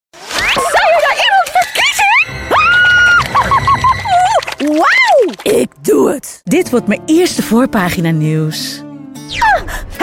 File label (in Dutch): animatie